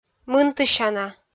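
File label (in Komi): "мынтысяна", Komi, female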